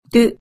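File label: "duu", Japanese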